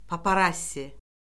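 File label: "paparassi", Azerbaijani; Orfoqrafiya Lüğəti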